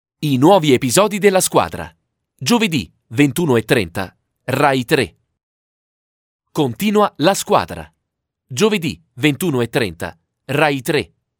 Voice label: commercial